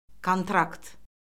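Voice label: "kontrakt", Azerbaijani; Orfoqrafiya Lüğəti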